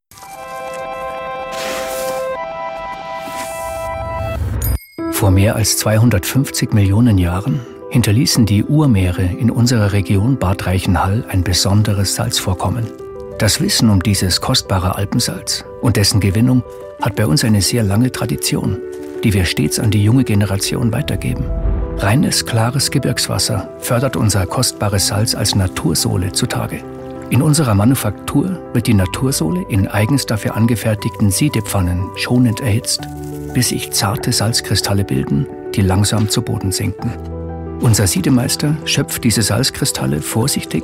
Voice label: bayerisch, Bad Reichenhaller; maenner; Dialekt

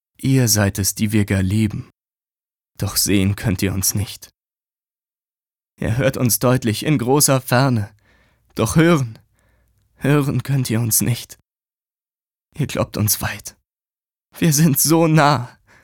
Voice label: traurig, maenner; Sprecher

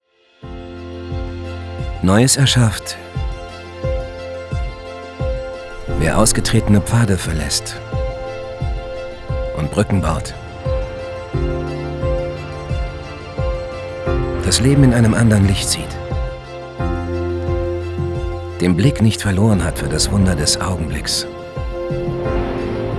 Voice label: Imagefilm, maenner; Final Frame Showreel

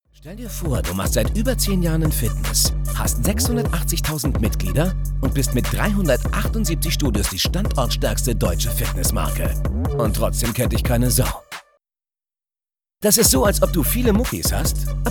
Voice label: Imagefilm, maenner; Clever Fit

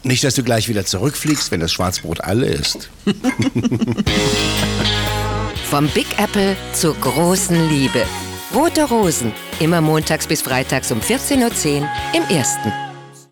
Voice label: Spots, Off, frauen; DasErste; RoteRosen

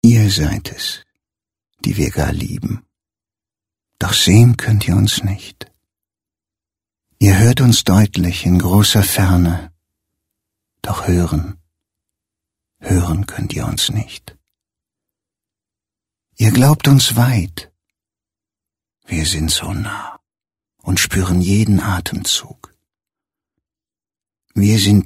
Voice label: poetisch, maenner

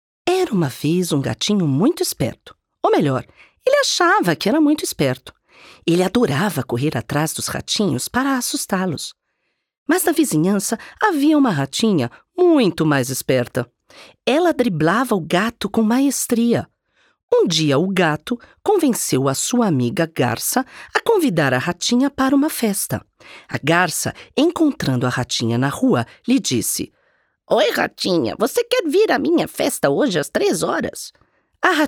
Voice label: mulher portugiesisch

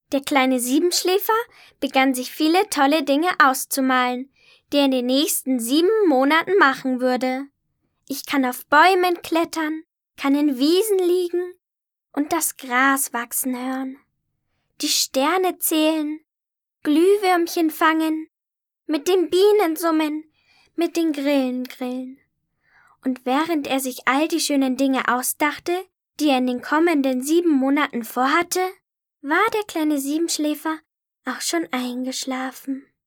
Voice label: maedchen; Siebenschläfer

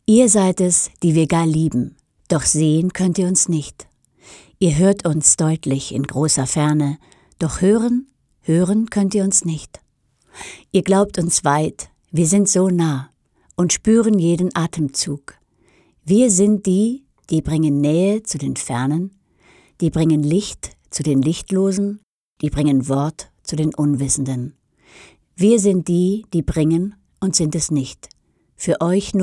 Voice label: sachlich, frauen

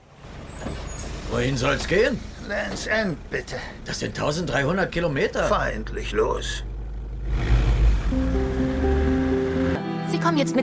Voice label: Trailer; Der Engl.der in den Bus stieg...

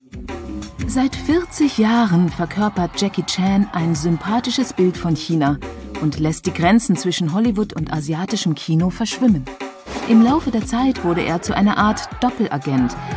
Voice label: Kommentar, frauen; arte; Jackie Chan

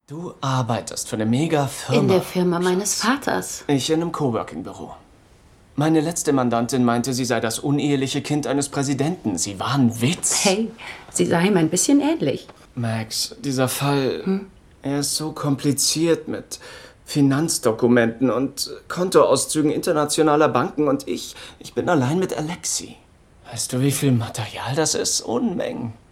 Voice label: Synchron, maenner; Inventing Anna

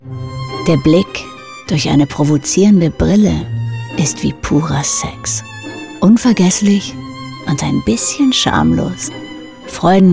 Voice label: Funkspot, frauen; Freudenhaus

